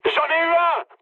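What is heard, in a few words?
French player killed enemy mood high; War Thunder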